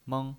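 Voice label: "meng", pinyin voice, Mandarin Chinese, first tone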